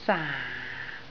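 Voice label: "saan4" Cantonese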